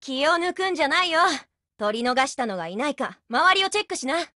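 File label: win, Japanese voice; GF Alarm; TavorTS12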